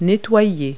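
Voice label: "cleave", English word; Multi-Lingual Talking Picture Dictionary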